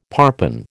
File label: "parpen", American